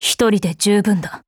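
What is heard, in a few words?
formation, Japanese; BossGager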